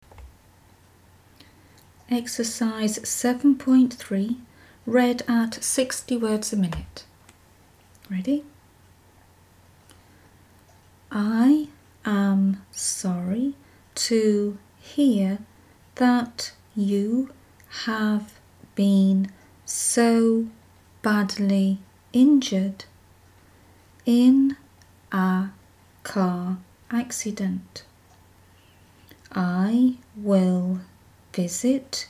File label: 60wpm; Teeline